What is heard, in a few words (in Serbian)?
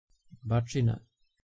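"Bacxina", Serbian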